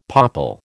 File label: "popple", American